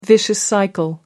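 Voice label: "static electricity", British English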